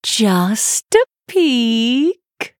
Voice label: Female Kul Tiran